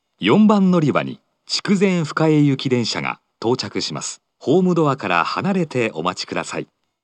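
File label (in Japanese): 接近-筑前深江行, 4番のりば放送; 福岡市地下鉄 空港線・箱崎線 中洲川端駅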